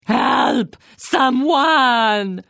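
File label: Flee, Khajiit female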